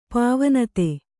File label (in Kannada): "ಪಾವನತೆ", Kannada